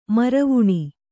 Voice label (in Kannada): "ಮರವಣೆ", Kannada